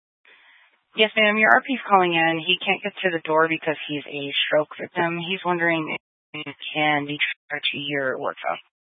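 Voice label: Incident Tactical, scanner audio recording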